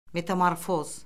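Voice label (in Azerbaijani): "metamorfoz", Azerbaijani; Orfoqrafiya Lüğəti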